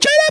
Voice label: "get up", Funky Vocals; USB Soundscan vol.18; AKAI